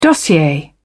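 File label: "dossier", British English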